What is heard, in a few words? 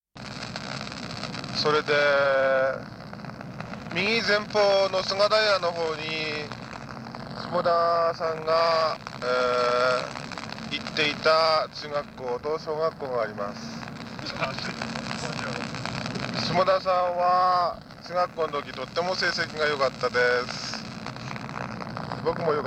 car-to-car wireless talking